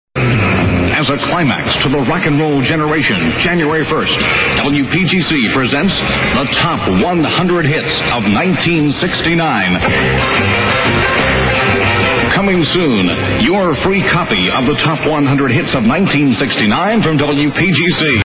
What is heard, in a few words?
promo; top 100 hits of 1969